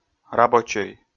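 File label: "рабочӧй", Komi, male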